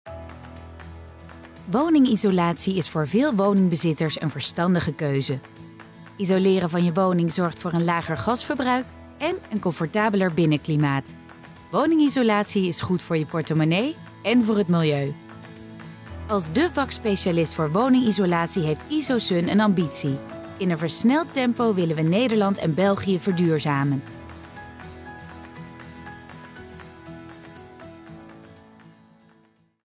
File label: Corporate; Isosun